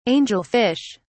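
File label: "angel-fish", British English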